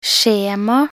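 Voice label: "skjema", Norwegian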